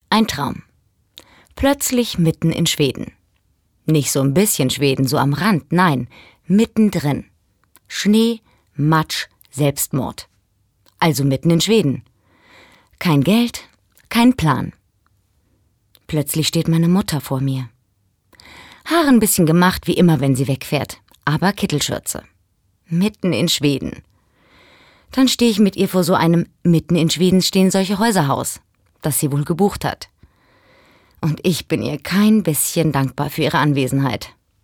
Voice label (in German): Schauspiel Neutral